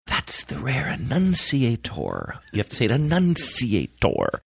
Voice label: quietly saying thats the rare enunciator